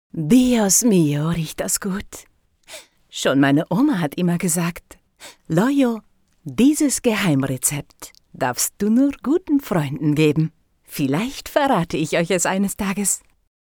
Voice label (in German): Hoerspiel Lama; Lollo